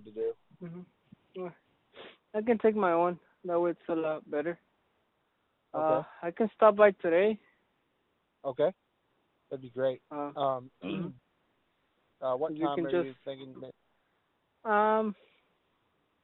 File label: Christmas Light Install Call